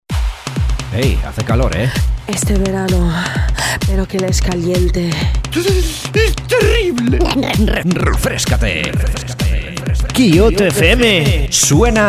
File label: jingle; kyoto; verano